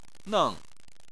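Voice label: "neng", Chinese, fourth tone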